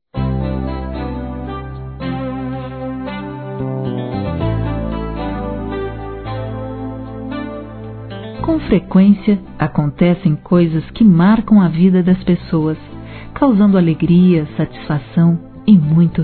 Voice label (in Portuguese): aniv. cunhada f